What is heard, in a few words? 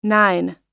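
"nine", American English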